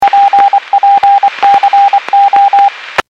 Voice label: Skywarn 146.67; Stevens Point